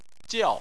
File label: "jiao4", Chinese